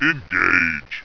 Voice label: "engage", rumbly; tactics